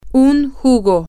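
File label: a Juice, Spanish, female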